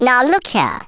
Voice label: "nooo", speech; Worms Armageddon

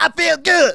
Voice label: "BRILLIANT", Soul Man, Speech

